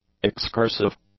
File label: "excursive", english; adjectives